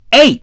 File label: dj, voice